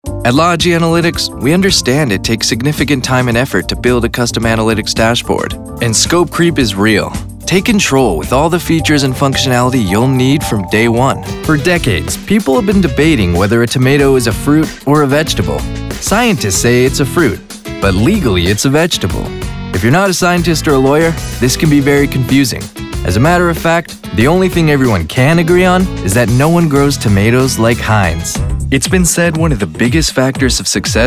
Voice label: Corporate